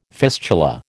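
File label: "fistula", American English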